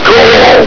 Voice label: "call!", combine soldier; hl2

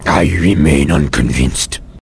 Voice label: answer, vort speech; hl2

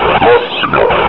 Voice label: lost long, combine soldier, near; hl2

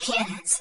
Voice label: cannons, dry, friendly female robot voice; Leevaudio

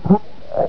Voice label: die, combine soldier, far; hl2

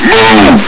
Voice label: "move!", Combine soldier; hl2

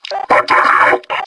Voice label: surprise; metropolice; hl2

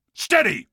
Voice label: steady, combine soldier; hl2

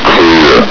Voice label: "clear", combine soldier; hl2

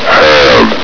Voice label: "have", Combine soldier; hl2

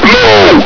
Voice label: "blow!", combine soldier; hl2

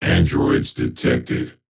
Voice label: Androids detected, Sci-Fi Voices Big Battle Robot; CB Sounddesign; Sci-Fi Voices Volume 02

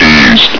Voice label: "east", combine soldier; hl2

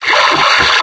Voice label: "movement!", combine soldier; hl2

